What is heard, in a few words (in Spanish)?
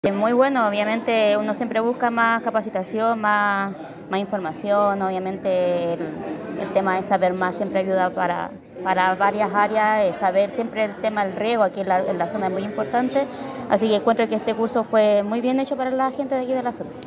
Cuña Alumna; Curso-SENCE-Illapel; operacion de sistemas de riego tecnificado y fertirriego